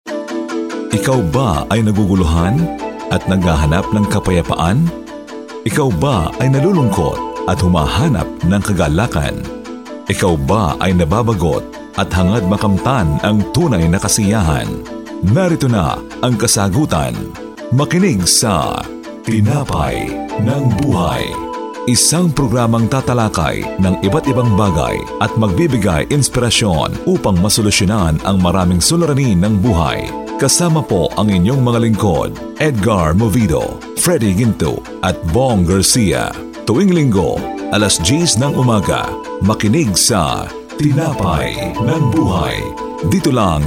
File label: Corporate Narrations